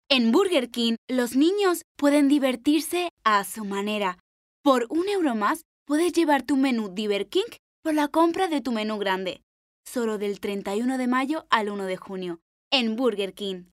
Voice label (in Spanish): Publicidad